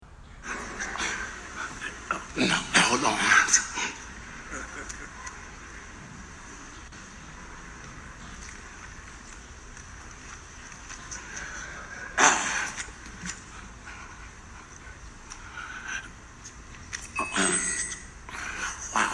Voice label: Commencement Speech